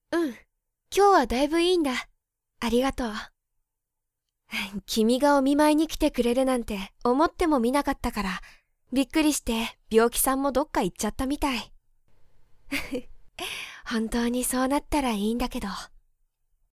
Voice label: sample man